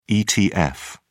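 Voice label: "etf", British English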